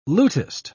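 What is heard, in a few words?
"lutist", British English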